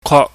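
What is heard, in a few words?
"kwok", Cantonese, mid tone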